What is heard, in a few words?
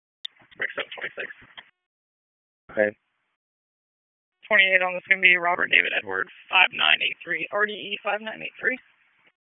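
Dispatch, p25; WSO DISP 1; wake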